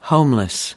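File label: "homeless", American English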